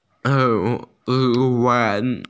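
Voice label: "steamship", Mandarin; lun2chuan2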